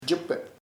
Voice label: honeycomb, chamacoco; panal